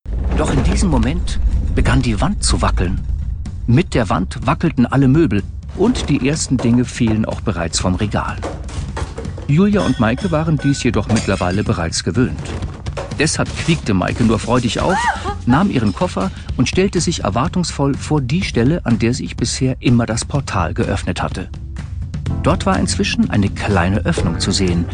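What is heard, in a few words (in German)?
Hoerspiel, Sprecher, Männer; Pummeleinhorn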